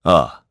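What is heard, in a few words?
Vox Aff Japanese; Lusikiel; kingsraid; jp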